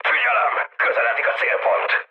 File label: near waypoint, mood high, speech hun; War Thunder